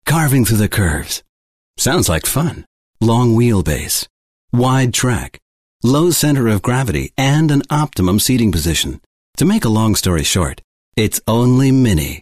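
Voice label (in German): English US Werbung Freundlich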